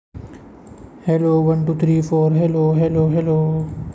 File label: voice message